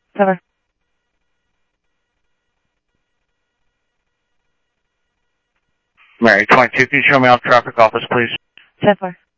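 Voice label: Simulcast police department, scanner audio trunk; Mesa Simulcast; MESA PD 1 Fiesta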